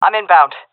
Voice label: omw, seal fem; vo; Counter Strike Model Pack; CS:GO